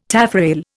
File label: "taffrail", Indian